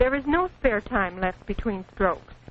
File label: there is no spare time, Quirky Female Typing Instructor; VOCALS and SPOKEN WORD